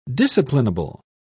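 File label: "disciplinable", English, British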